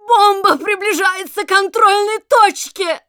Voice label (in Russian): Announcer cart attacker warning, ru; "Бомба приближается к контрольной точке!"